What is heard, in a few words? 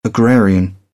"agrarian", British; er - eər; English